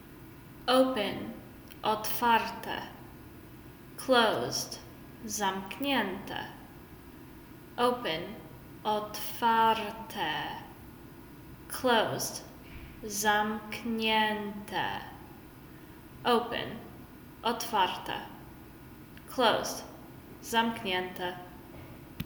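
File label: Open Closed, Polish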